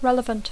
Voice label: "relevant", English

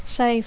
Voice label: "save", English

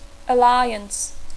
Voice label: "alliance", English

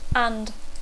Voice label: "and", English

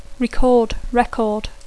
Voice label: "record", English; verb tr; gravar; disc; antecedents penals

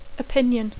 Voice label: "opinion", English

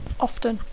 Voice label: "often", English; adverbi; sovint

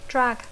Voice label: "drag", English

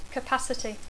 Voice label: "capacity", English

